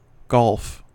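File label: "golf", US English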